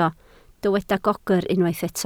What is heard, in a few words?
"gogr", Welsh